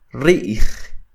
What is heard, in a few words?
"Ri'j", Quiché; viejo, anciano (persona); old (person); INALI; UTexas; CHQETA